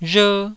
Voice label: "rher1", taiwanese